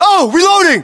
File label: inform reloading, US